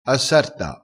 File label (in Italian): "assarta", crespinese